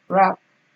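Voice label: "rap", Nigerian Pidgin, female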